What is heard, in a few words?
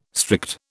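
"strict", British English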